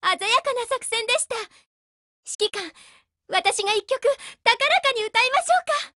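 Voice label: WIN Japanese, Voice; GF Alarm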